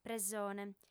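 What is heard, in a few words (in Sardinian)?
"presone", female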